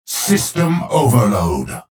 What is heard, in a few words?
chatter negative; clutch; respawn